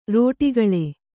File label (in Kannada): "ರೋಟಿಗಳೆ", Kannada